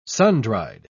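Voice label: "sundried", English, uk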